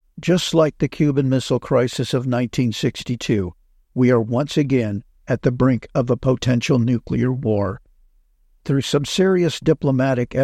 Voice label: AudioBook